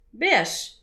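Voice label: "beaj", Breton; petit precis de prononciation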